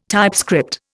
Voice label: "typescript", Indian